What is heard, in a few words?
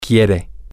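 he wants, Spanish, male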